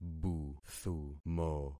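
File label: "buthumo", concatenated syllables; Affixing experiment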